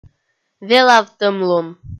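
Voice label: "велавтӧмлун" Komi, female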